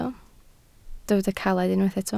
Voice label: "caled", Welsh

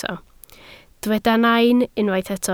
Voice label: "nain", Welsh

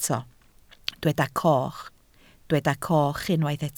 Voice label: "coch", Welsh

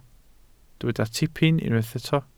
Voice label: "tipyn", Welsh

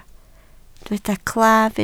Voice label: "claf", Welsh

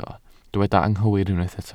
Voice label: "anghywir", Welsh

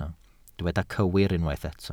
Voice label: "cywir", Welsh

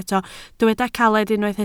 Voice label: "caled", Welsh

